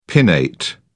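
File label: "pinnate", British English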